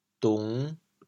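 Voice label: "dung5", Teochew, chaoyang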